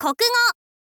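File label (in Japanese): "kokugo", Japanese, girl; voice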